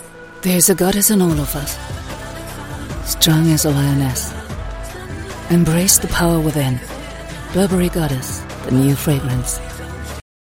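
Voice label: english; burberry goddess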